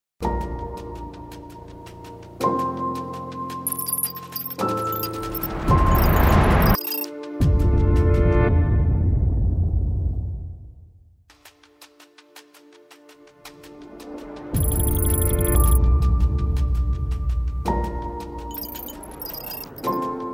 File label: Event Opener Voice Over; AclaraConnect 18 San Diego